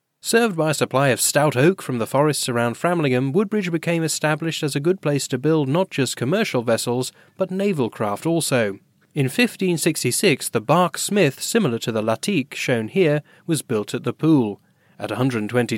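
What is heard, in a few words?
English UK male NonFiction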